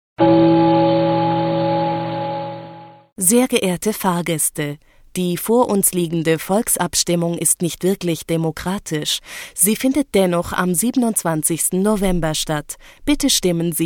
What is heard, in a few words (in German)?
Ansage